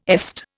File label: "EST", Indian